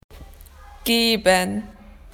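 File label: "to give", Australian English; PictureDictionary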